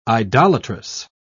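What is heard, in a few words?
"idolatrous", English, British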